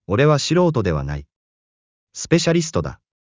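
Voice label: anime, Japanese, Hilarious Anime Quotes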